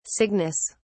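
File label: "cygnus", British English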